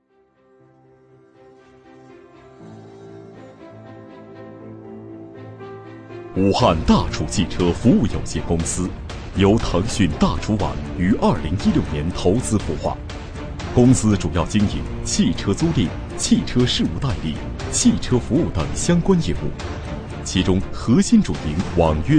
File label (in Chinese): 男 专题 大气激情; 武汉大楚汽车专题